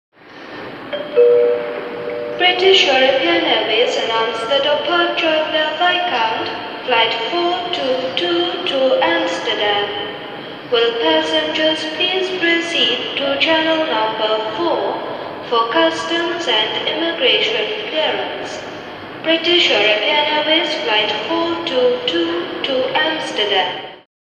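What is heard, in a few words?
Airport; Flight Announcements; Twa Personal Announcements.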